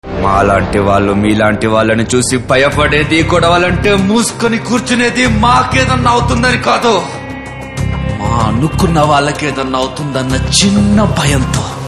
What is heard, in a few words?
Dialogue Ringtone; Guna 369; Karthikeya